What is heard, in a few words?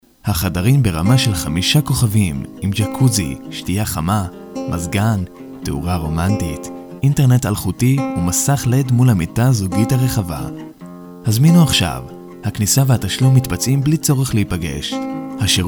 male Hebrew